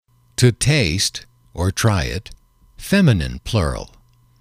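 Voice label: to taste try it, English, male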